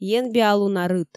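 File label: "енбиалуна рыт", Komi, female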